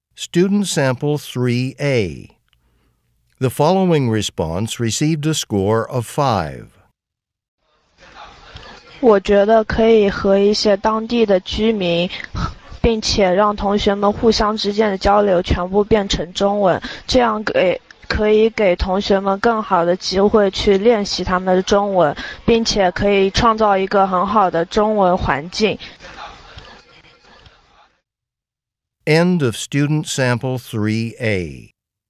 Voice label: chinese conversation; Conversation 3 Sample A (Score of 6)

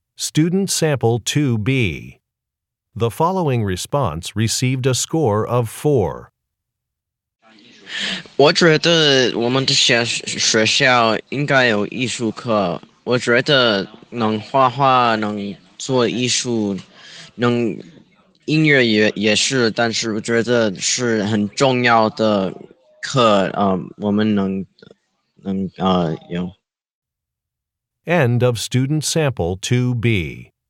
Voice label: chinese conversation

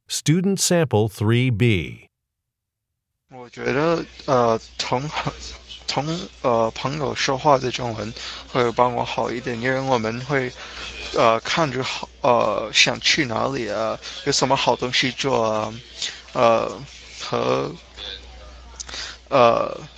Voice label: chinese conversation

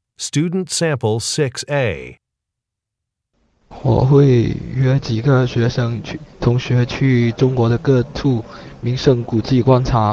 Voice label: chinese conversation

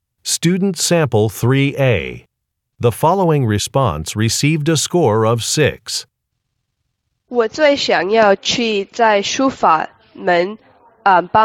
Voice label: chinese conversation

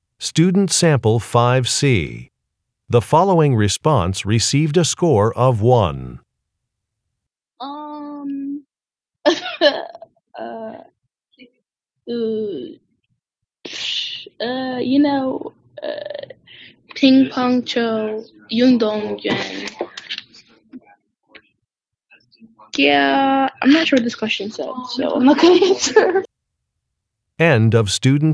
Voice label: chinese conversation

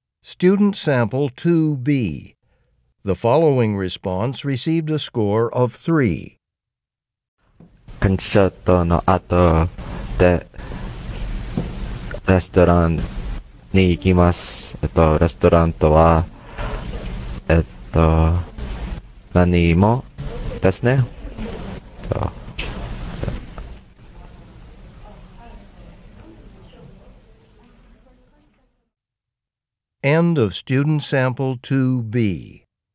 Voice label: japanese conversation; Conversation 2 Sample B (Score of 3)

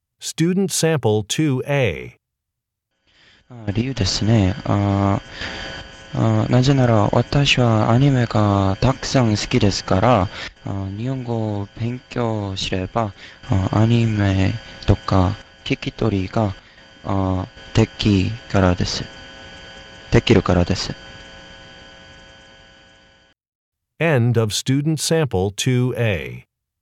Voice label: japanese conversation; Conversation 2 Sample B

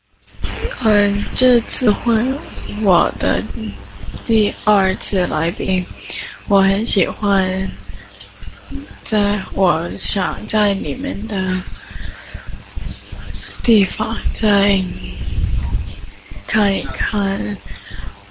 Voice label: Chinese Conversation; Conversation6 SampleC Score 1

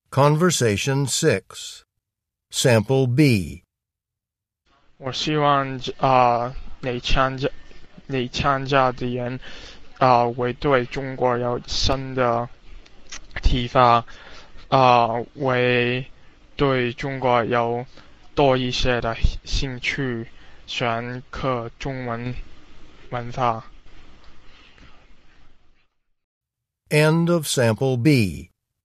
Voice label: Chinese convo; Conversation 6 Sample B (Score of 4)

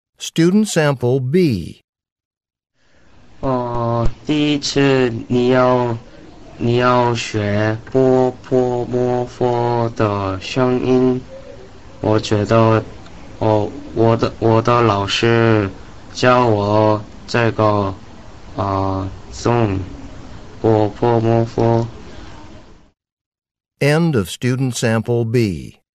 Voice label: chinese conversation; Conversation 6 Sample B (Score of 3)

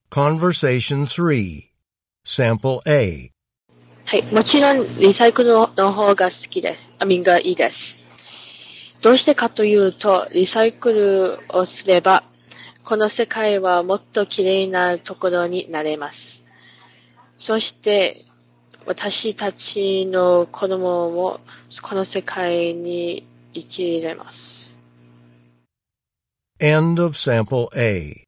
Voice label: japanese convo

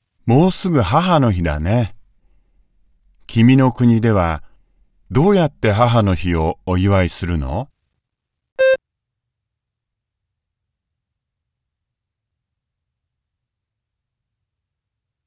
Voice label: japanese conversation

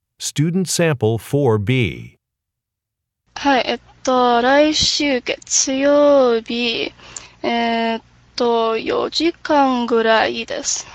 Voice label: japanese conversation; Conversation 4 Sample B (Score of 4)

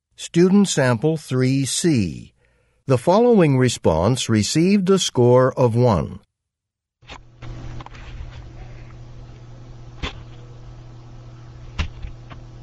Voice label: chinese convo; Conversation 3 Sample C (Score of 1)

